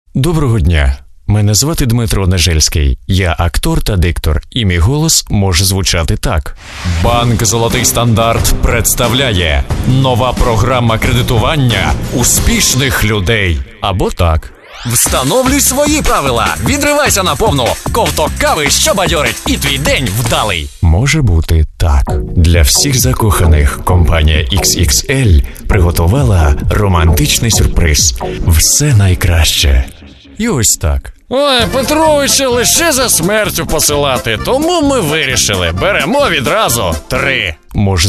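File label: Ukrainian, male announcer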